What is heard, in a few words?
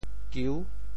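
"kiu6", Teochew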